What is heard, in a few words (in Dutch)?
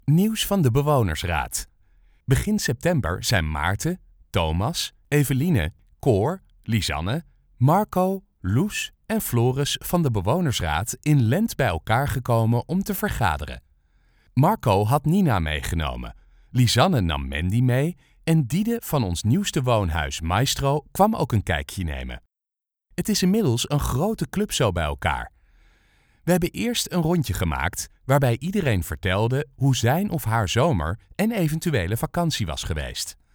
Voiceover